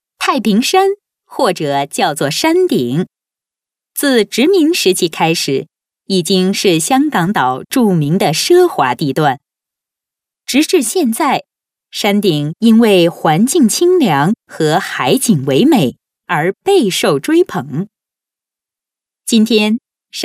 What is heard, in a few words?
Chinese Mandarin Female